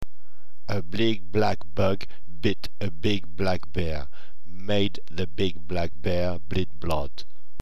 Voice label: bug, tongue twisters